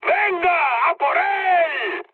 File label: attack position, mood high, speech; War Thunder